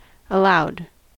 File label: "aloud", us